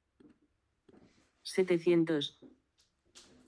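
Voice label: "sietecientos", Spanish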